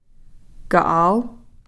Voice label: "ka'al", from